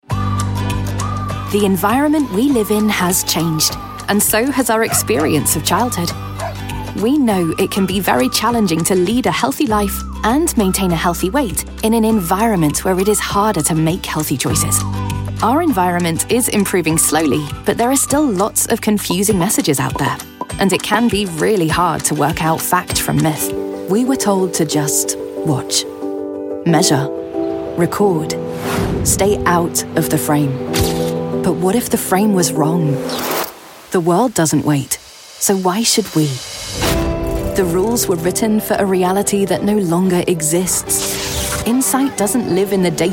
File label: corporate